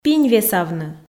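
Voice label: "пинь весавны", Komi, female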